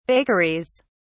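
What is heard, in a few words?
"bakeries", British English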